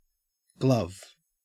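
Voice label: "glove", Australian English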